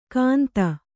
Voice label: "ಕಾಂತ", Kannada

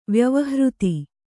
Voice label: "ವ್ಯವಹೃತಿ", Kannada

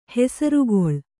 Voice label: "ಹೆಸರ್ಗೊಳ್", Kannada

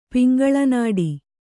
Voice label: "ಪಿಂಗಳನಾಡಿ", Kannada

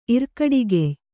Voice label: "ಇರ್ಕಡಿಗೆಯ್", Kannada

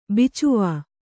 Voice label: "ಬಿಚ್ವಾ", Kannada

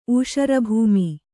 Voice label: "ಊಷರಭೂಮಿ", Kannada

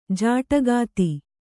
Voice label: "ಜೋಡುಖಾತೆ", Kannada

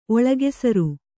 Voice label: "ಒಳಗೆಸರು", Kannada

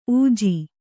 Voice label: "ಊಜಿ", Kannada

